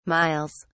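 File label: "miles", British English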